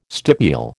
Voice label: "stipule", American